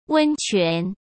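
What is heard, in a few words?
"温泉", Mandarin Chinese; chinese-flashcards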